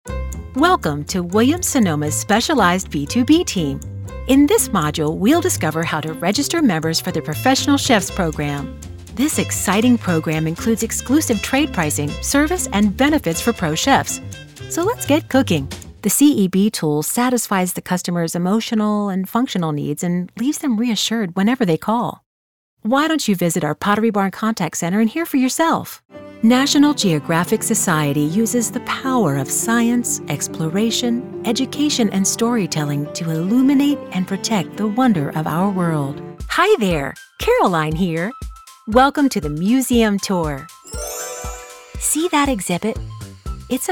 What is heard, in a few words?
E-Learning